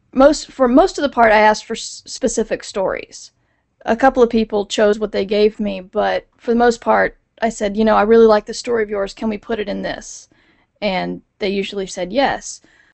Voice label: Skype Remote Record; Skype Recording Sample; Professional Recording